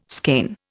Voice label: "skein", Indian